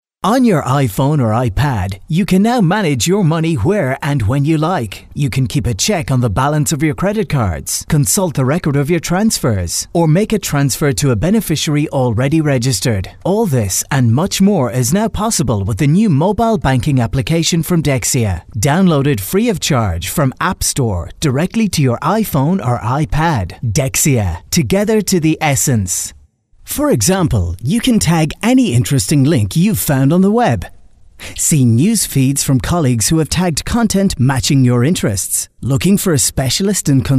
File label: E Learning